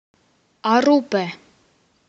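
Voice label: to rip, Romanian; PictureDictionary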